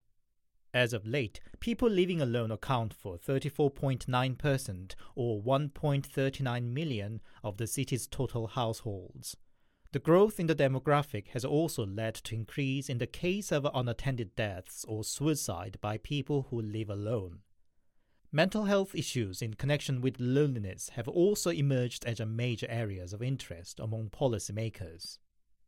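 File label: Korean, English accent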